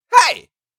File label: Adult male shout in anger feeling annoyed "heyy"